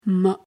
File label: "miim", Arabic